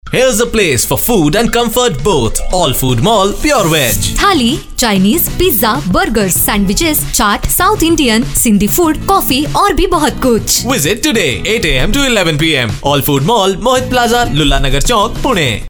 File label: radio promos